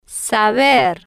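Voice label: "saber", slow, spanish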